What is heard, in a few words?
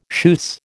"schuss", American